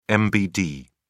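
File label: "mbd", British English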